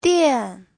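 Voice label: "diàn", Mandarin Chinese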